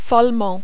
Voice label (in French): "follement", French